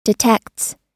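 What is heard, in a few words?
"detects", US English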